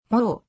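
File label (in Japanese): "も", 日本語; 五十音基礎聽解題目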